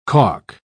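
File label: "calk", British English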